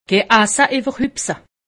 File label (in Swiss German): "iwwerhupse", alsace; medecine